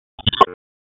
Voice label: Raleigh Police Northeast District Tac, p25; wake